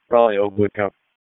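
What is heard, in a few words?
Raleigh Police Southeast District Dispatch, p25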